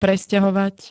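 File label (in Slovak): "presťahovať", Slovak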